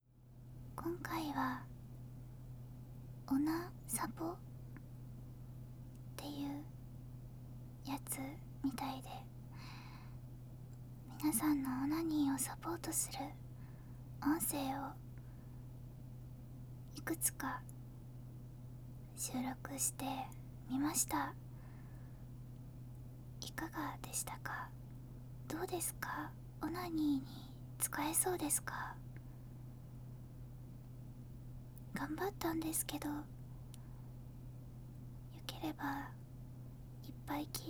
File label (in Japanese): フリートーク; ASMR